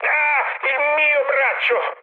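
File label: Italian damaged pilot mood med, speech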